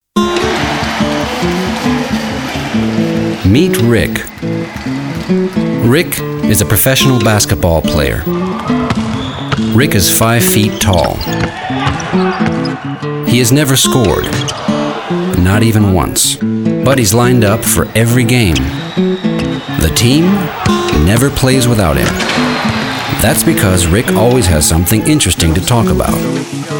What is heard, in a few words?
male English USA magazine commercial